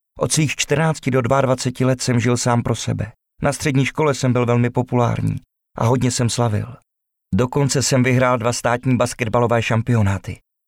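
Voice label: male Czech documentary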